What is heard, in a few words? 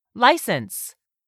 "license", English; 3000 words